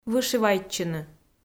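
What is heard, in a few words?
"вышивайтчыны", Komi, female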